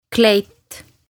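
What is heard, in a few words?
"Kleit" Estonian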